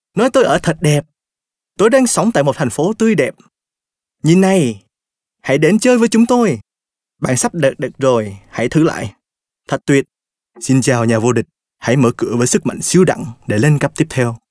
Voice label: Male Vietnamese Toys